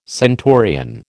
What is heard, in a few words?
"centurion", American